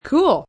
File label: "Cool" Teen, child, boy, teenager; Talking; VoiceBox